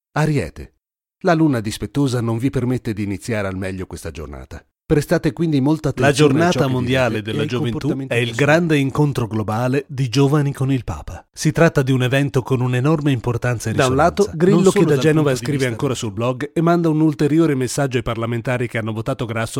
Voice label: Podcast

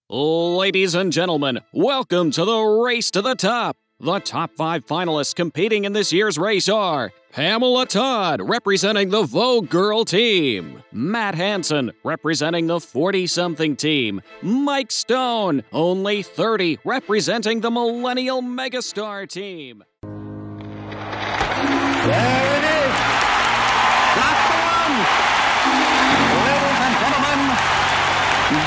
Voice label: Announcer

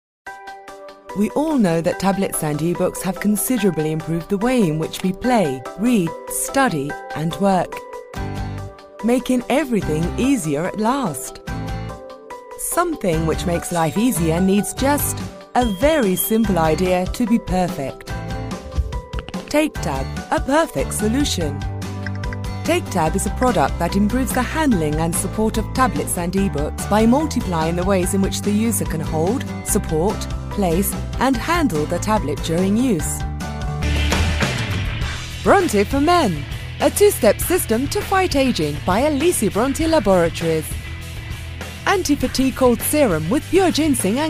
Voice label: CORPORATE ENGLISH

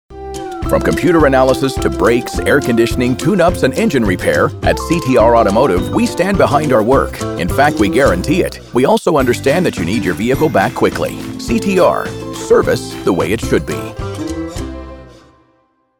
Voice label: Light conversational automotive

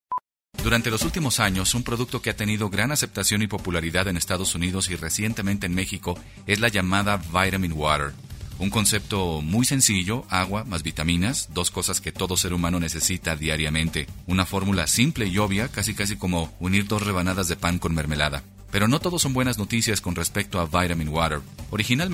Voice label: podcasting

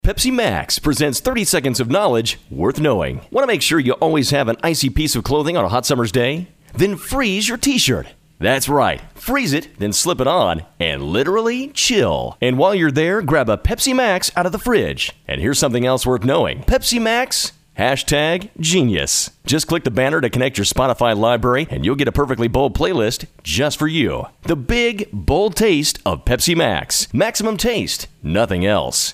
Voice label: Ad; Pepsi MAX; Genius; Spotify US

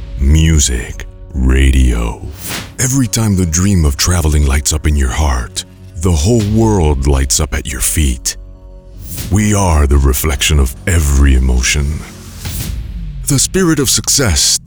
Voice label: English epic deep power

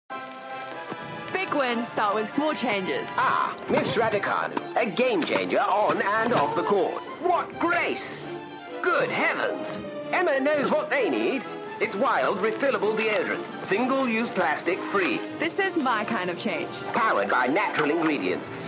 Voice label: Commercial Narration, Male; Wild Deodorant; Clockwise AI; Pensionbee

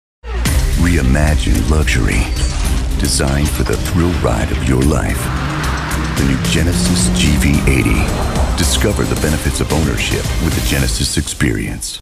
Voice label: Automotive Edgy Calm Sophisticated; Genesis

